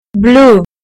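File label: "blue", British English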